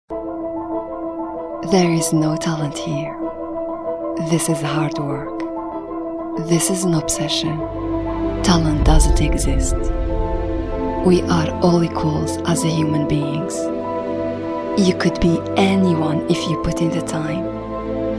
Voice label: english, english female voices arabic accent